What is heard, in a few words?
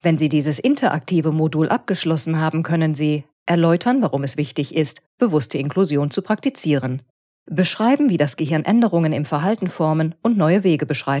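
German elearning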